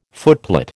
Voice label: "footplate", American

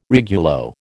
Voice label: "regulo", American

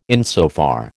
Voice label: "insofar", American

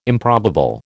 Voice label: "improbable", American

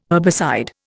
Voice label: "herbicide", Indian

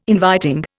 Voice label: "inviting", Indian

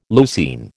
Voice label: "leucine", American

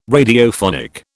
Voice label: "Radiophonic", British

